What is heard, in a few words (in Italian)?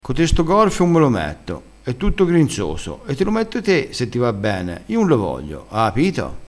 "grinzoso", crespinese